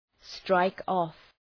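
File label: "strike-off", English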